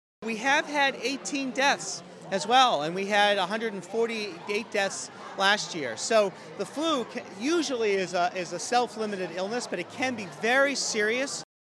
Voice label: Farmshow Flu Shot radio; DOH